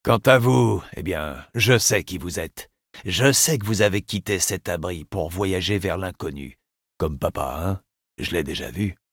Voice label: Dialogue greeting